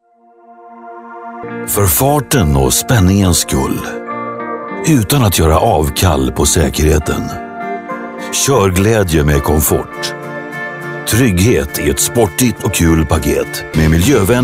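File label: Commercial showreel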